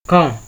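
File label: "come", Nigerian Pidgin, male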